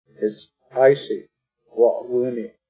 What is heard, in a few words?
icy, woo'owúúni' = it is icy, Arapaho; Arapaho Language Project; RMNP